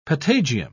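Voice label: "patagium", British English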